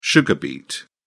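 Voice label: "sugar beet", British English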